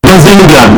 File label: bazinga bass boosted